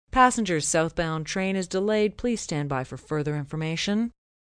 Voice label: Train Delayed, Train, subway, PA, announcements, female